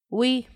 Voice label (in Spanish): piedra, Paipai